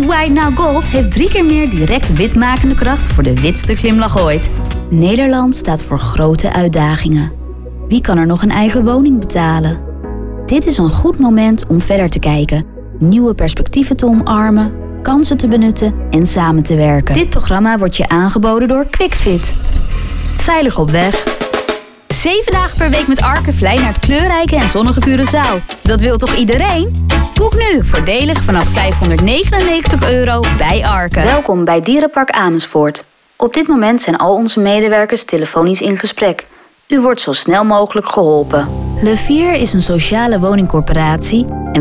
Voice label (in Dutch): Voice Over Stemdemo